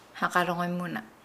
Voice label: "obey", Nukuoro

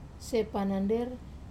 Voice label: "panander", Wamesa

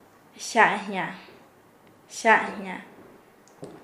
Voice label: "acabar", Nasa Yuwe; paez

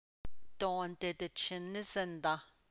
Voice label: He she is not even hungry, Navajo

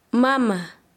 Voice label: Chanka, quechua; madre

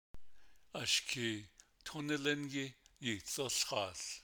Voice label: Don't let the boy fall into the river, Navajo; imperative

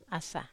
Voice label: "asa", Wamesa

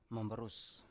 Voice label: "mambarus", Biak

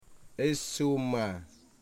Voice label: "firewood", Wamut

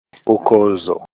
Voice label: "rainy season", Olukumi

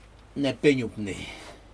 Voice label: nepig upni, after sunset, Aneityum

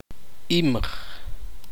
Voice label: "ceno", Tektiteko

